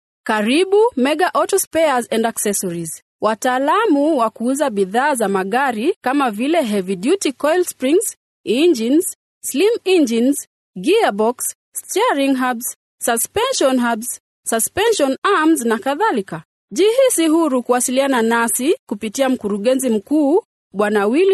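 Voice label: Swahili, female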